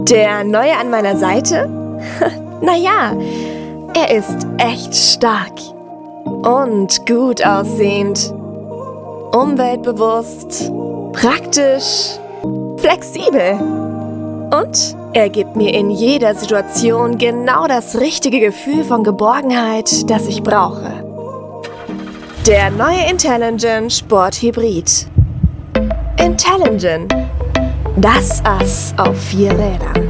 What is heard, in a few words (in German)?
Werbedemo; Intellengine